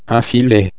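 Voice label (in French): "filet", French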